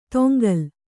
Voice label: "ತೊಂಗಲ್", Kannada

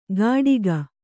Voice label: "ಕಟಿಗ", Kannada

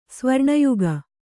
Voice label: "ಸ್ವರ್ಣಯುಗ", Kannada

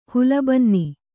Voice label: "ಹುಲ್ಲುಬನ್ನಿ", Kannada

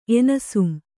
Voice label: "ಎನಿಸುಂ", Kannada

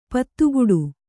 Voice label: "ಪದಗುಡು", kannada

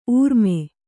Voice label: "ಊರ್ಮೆ", kannada

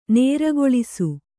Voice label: "ನೇರ್ಗೊಳಿಸು", Kannada

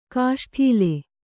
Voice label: "ಕೇಸೊಡಲ", kannada

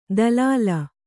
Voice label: "ದಲಾಲ", kannada

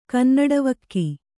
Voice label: "ಕನ್ನಡವಕ್ಕಿ", Kannada

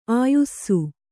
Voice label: "ಆಯುಸ್ಸು", Kannada

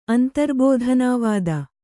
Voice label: "ಅಂತರ್ಬೋಧನಾವಾದ", kannada

